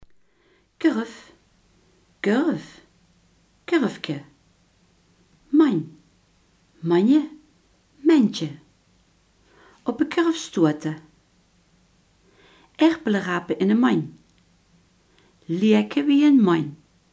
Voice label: "korf", Limburgs

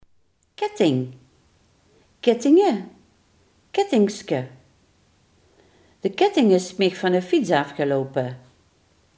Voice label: "ketting", Limburgs

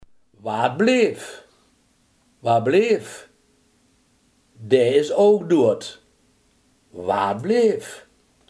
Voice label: "watblieft", limburgse

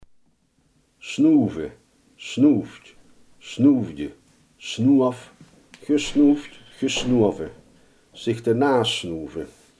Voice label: "snuiten", Limburgs

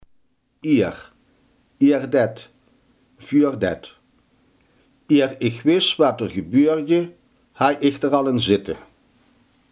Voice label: "voordat", limburgse

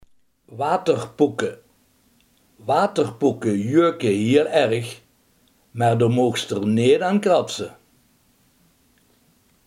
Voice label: "waterpokken", limburgse

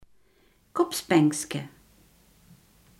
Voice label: "kopspeldje", Limburgs

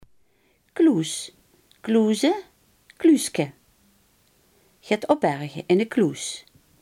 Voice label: "kluis", Limburgs

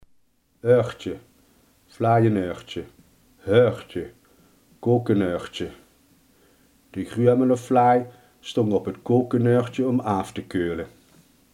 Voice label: "vlaaienrekje", limburgse

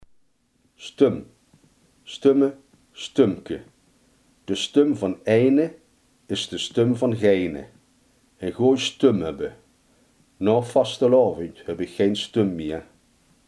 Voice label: "stem", Limburgs

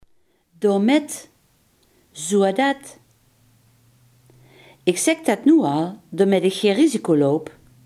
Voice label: "zodat", limburgse; zodat, daomèt, zoeadet; Ich zèk det noe al, daomèt ich gei risico loup.

